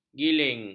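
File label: "giling", Malay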